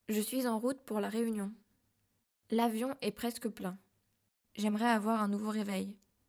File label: long happy